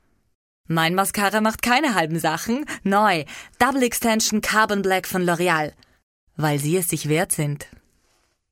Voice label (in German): Werbung